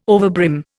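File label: "overbrim", Indian English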